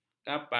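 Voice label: "kapan", Malay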